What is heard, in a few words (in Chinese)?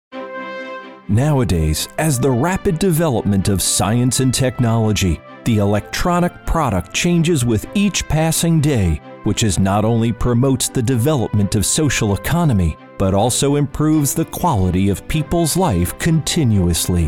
外籍男, 激情浑厚